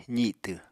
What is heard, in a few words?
"zitw", Apatani